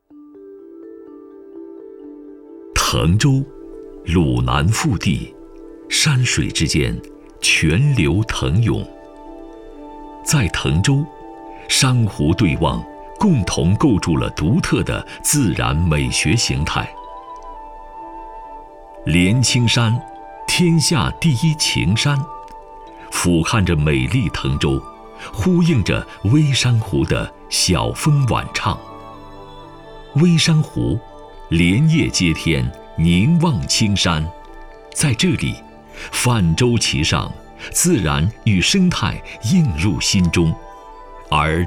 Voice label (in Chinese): 男国 宣传片 城市 浑厚; 腾州